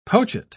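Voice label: "pochette", British English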